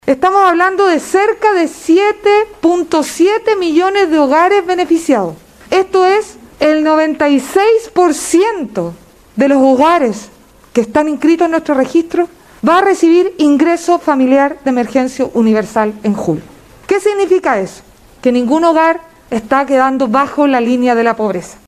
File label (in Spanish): cuña